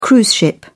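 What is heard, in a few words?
"cruise ship", British English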